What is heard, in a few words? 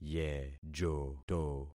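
"yejoto", concatenated syllables; Affixing experiment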